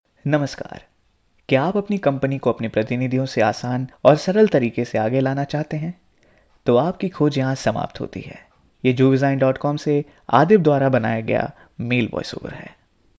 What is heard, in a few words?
Real Hindi Male Voiceover Sample; Jvesign